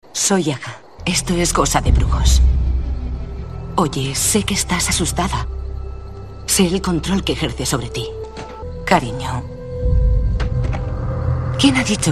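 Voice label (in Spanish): doblaje España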